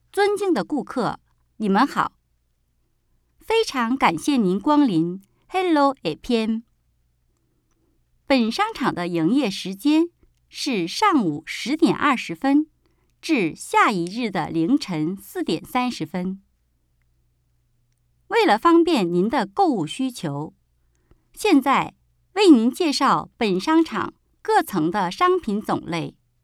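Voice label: chinese mandarin female narration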